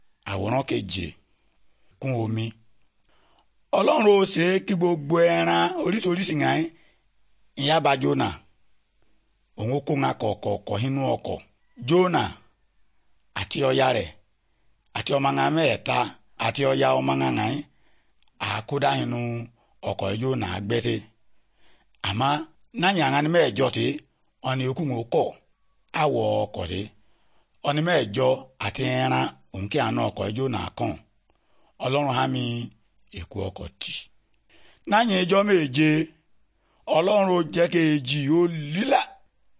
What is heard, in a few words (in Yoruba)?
good news yoruba, bible